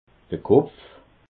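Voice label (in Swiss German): "kopf", Alsatian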